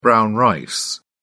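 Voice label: "brown rice", British English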